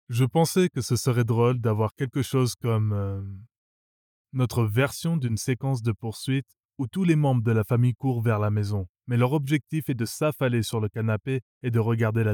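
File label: French, France, male, voice over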